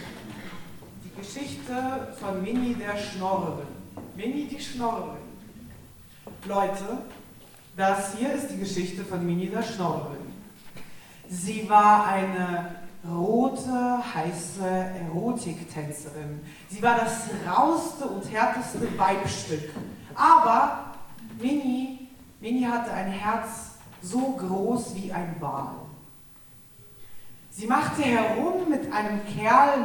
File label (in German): Ansage; jazz and lyrics